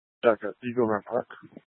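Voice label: Incident Tac 3, Scanner audio recording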